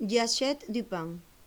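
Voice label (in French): "du pain", French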